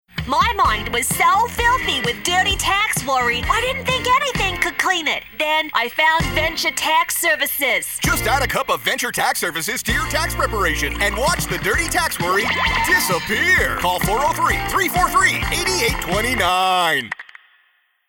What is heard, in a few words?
Infomercial Radio; Infomercial Radio Campaign Series